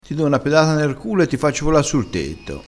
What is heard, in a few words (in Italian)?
"pedata", crespinese